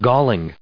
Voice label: "galling", us